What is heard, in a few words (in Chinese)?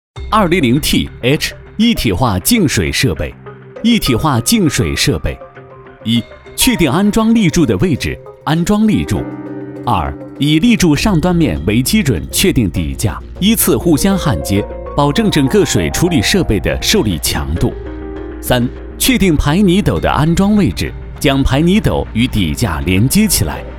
男, 专题, 科技一体化, 沉稳